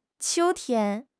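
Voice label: "qiu1 tian1", Chinese; HSK3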